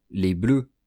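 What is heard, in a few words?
"les bleus", French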